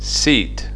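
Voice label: "seat", English; fonetica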